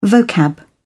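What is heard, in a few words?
"vocab", British English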